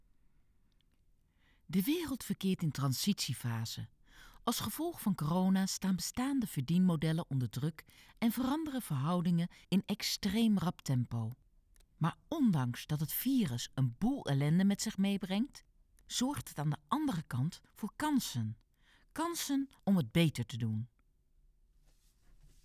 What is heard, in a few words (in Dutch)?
zakelijk vriendelijk; Fairminds